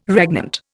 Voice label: "regnant", Indian